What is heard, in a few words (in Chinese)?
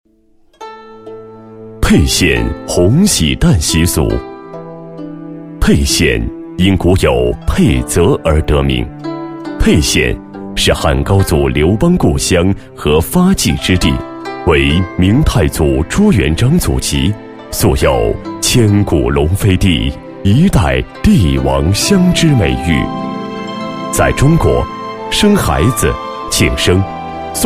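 男厚重大气-民俗类纪录片-红喜蛋习俗配音, 国语男声配音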